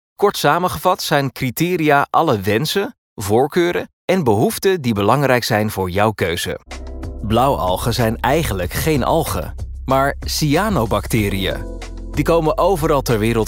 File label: E-LEARNING